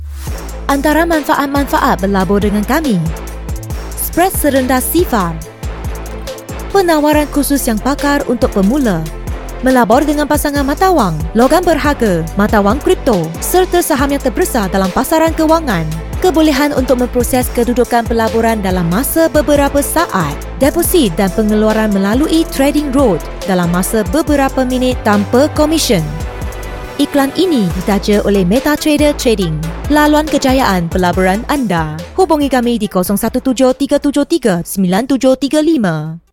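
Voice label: Corporate, Malay; GoLocalise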